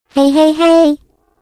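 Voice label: "hey", English; portal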